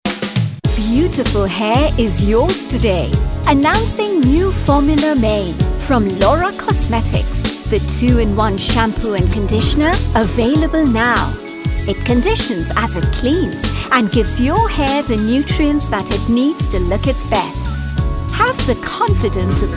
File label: Shampoo TVC, female; India Female